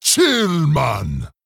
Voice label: Male Troll Trigger